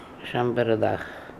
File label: "chambardakh", pronounciations; Fourth Way; Beelzebub